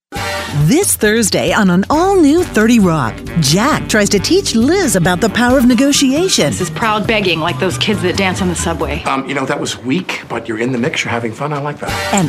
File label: Promo